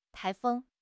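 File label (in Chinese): "台风", Chinese; HSK6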